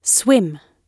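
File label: "swim", British English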